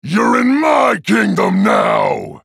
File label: spellcast effort, en US; Trundle